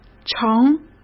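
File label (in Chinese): "城", Mandarin Chinese; chinese flashcards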